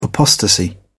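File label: "apostasy", British English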